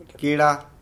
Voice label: "insect", Korku